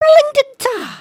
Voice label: group talk; emotes